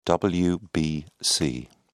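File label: "wbc", British English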